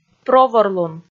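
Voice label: "проворлун", Komi, female; kpv audio dict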